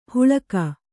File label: "ಹುಳಗ", kannada